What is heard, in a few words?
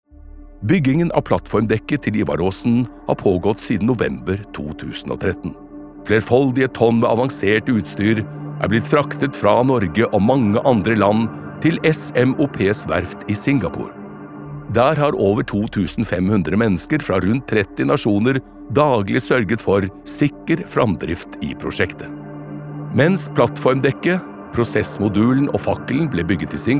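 Norwegian corporate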